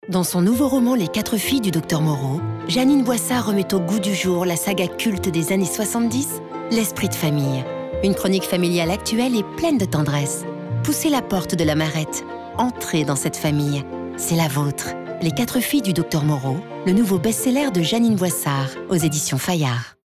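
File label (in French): Pub Radio récit passionne, voix-off féminine; Livre; "Les 4 filles du Doc Moreau"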